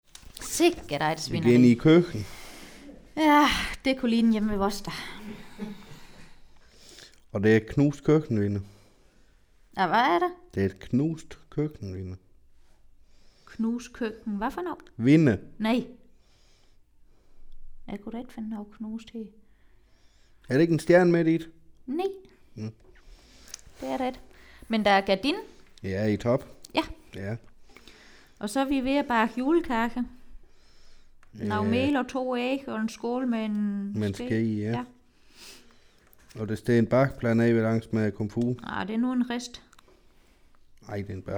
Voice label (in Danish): SOEN dialekt mk aar